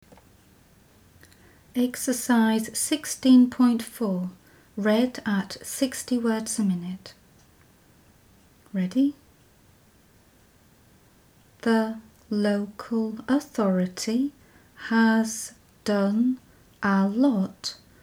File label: 60wpm; Teeline